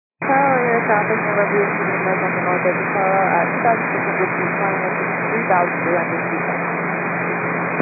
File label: 122 925MHz, scanner radio